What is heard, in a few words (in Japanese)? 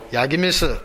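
"yagimisu", 南山形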